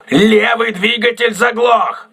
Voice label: voice message left engine damaged, crew ships russian